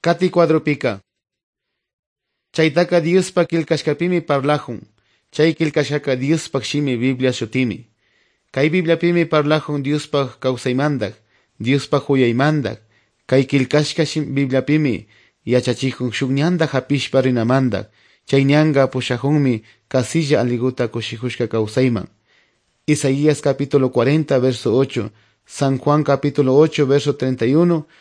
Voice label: good news quichua otovalo

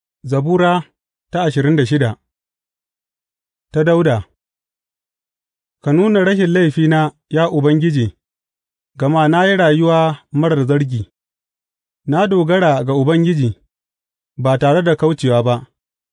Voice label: hausa bible drama, psalms; hausa bsn nt drama

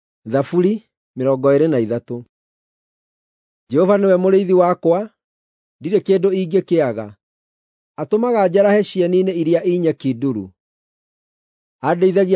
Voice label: psalms, gikuyu drama

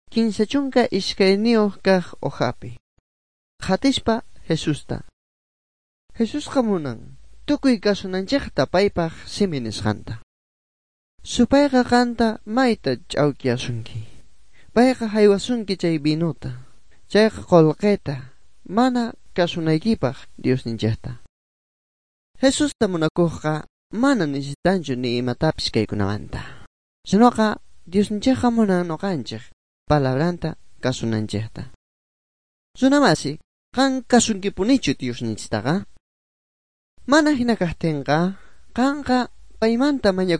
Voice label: good news quechua bolivian sucre; bible

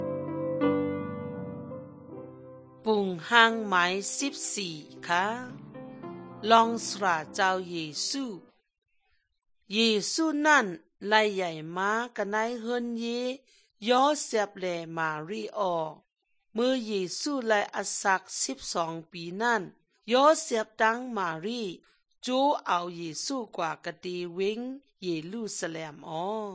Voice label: good news shan eastern, drama

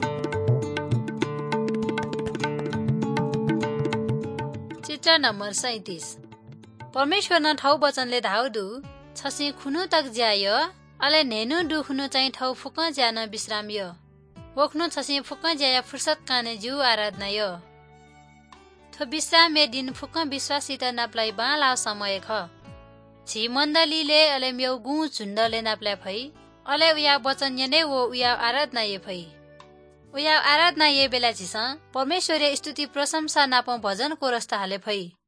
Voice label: good news newari balami; bible

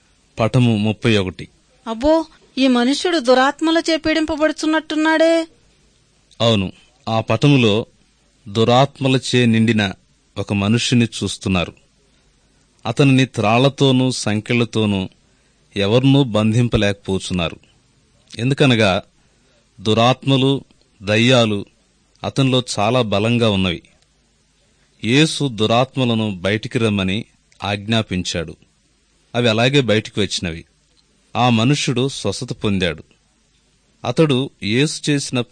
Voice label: good news telugu

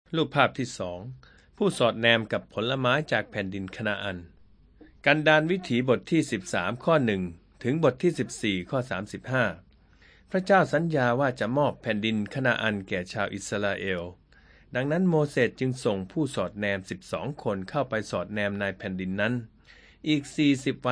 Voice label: thai central

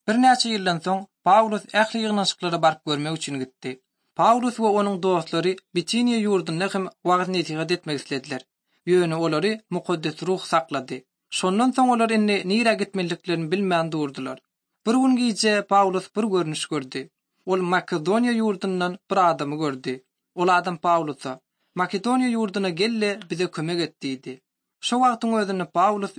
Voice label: turkmen, bible translation drama; ot drama; turkmen institute for bible translation; acts of the holy spirit

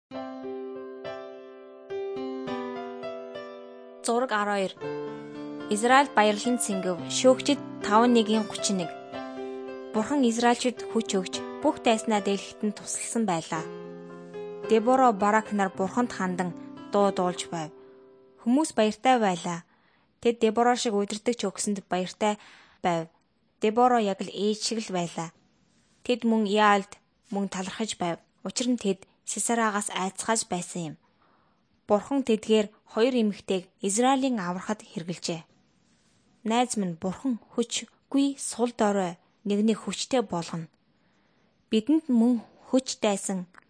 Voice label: mongolian

